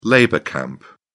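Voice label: "labour camp", British English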